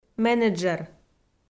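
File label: "менеджер", Komi, female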